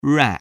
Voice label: "rack", english